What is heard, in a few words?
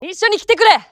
japanese Moving; azurlane-hoi4; azurlane-tj